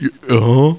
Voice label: annoyed; humans; war2